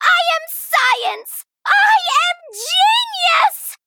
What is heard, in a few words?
Female Goblin Play